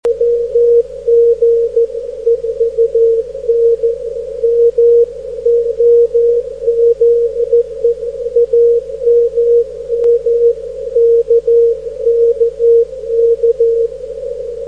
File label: CQ, EME